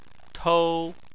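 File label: "tou", Chinese, first tone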